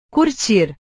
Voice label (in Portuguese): "curtir", portugues; portugues/verbos